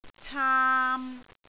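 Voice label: "caam3", Cantonese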